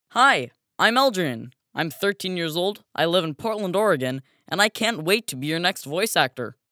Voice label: Male Kids